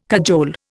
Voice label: "cajole", Indian

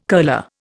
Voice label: "curler", Indian

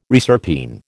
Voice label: "reserpine", American

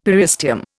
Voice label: "periosteum", Indian; Dictionary

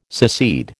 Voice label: "secede", American

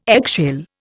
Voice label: "eggshell", Indian

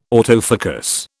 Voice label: "autofocus", British

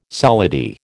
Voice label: "solidi", American

